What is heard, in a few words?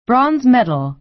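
"bronze medal", American English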